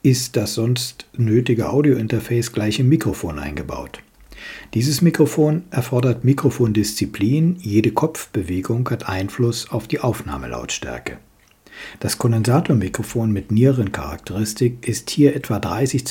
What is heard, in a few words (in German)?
Mikrofontest Rode NT USB Mini